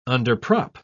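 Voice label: "underprop", English, British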